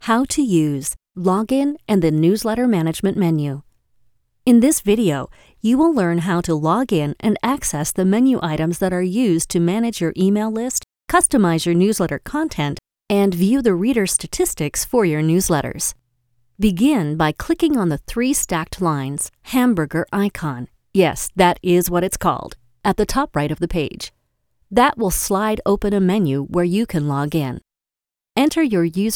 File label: e-learning